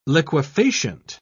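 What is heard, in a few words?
"liquefacient", British English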